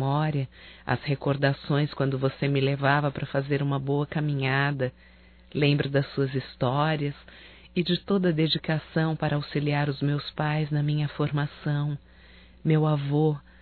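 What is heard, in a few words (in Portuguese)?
NATAL AVÔ, feminino; Telemensagens 2017; Natal & Ano Novo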